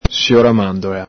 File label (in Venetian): "sioramandola", Veneto